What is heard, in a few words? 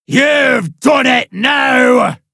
angry you've done it; ahatintime; conductorboss